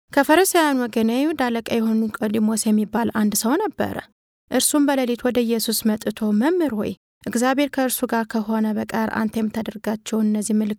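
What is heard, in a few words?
Amharic, female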